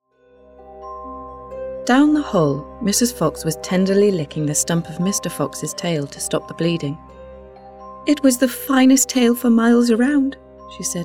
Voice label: Narration

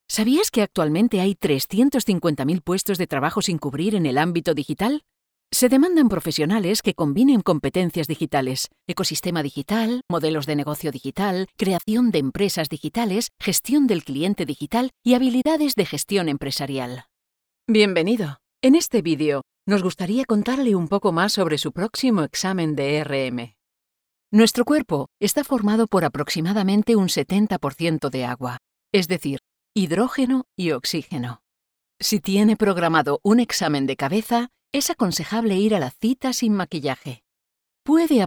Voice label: E-LEARNINGS RAW NO MUSIC

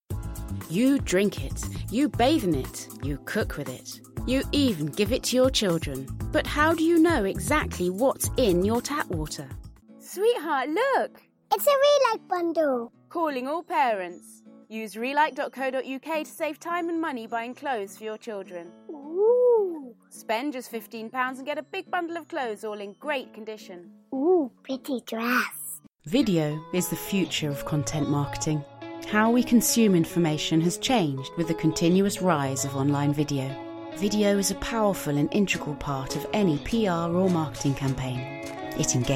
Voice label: Commercial

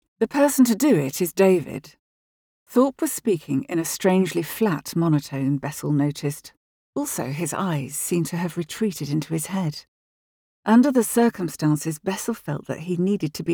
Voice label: AUDIO BOOK

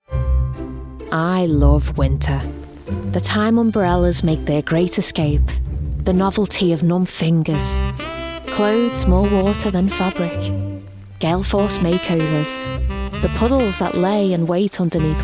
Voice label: Ad; Heinz

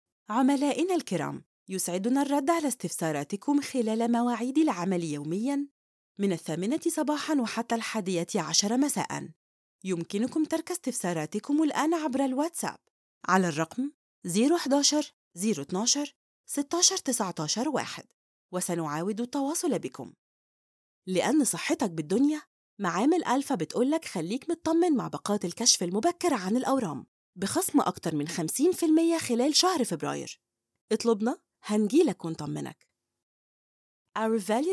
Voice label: Arabic English IVR

